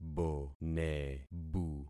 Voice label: "bonebu", concat syllables; Affixing experiment